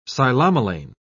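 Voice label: "psilomelane", British English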